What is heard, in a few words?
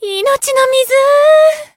healed, jp